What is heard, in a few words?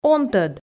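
"он тӧд", Komi, female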